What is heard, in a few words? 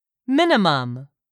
"MINIMUM", english; 3000 words